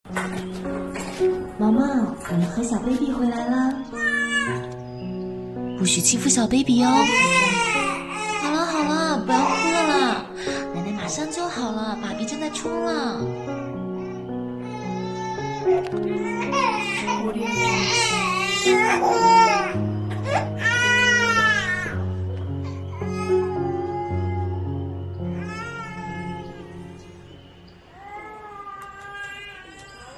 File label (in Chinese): 广告 家居家电 空调 温柔; 美的无风感空调